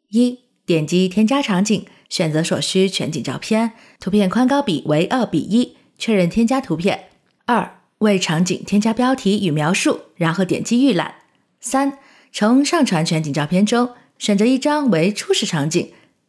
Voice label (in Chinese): 视频 旁白